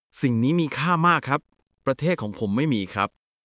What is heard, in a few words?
Thai, basic thai phrases